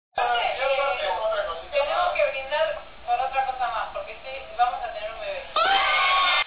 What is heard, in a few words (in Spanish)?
anuncio